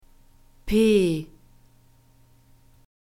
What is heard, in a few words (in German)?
"p", German alphabet